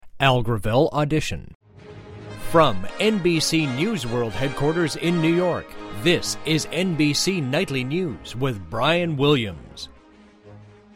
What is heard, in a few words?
Nightly News Open, Auditions; NBC